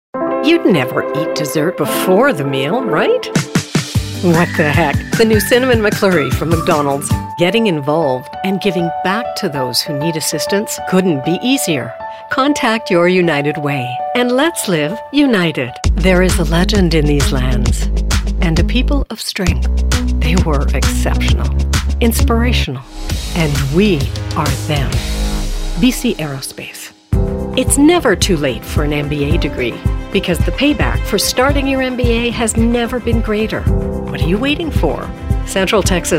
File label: Commercial